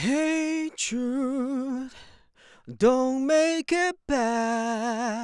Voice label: english male recordings